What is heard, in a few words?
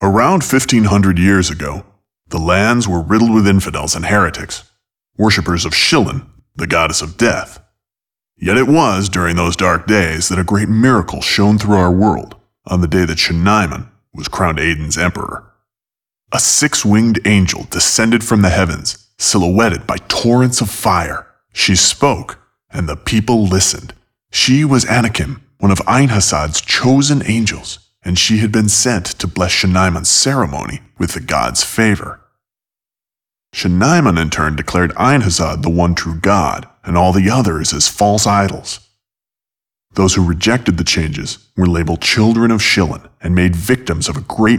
cinematic voice; lineage2